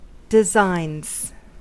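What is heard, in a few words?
"designs", American English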